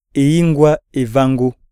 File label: slap, iyasa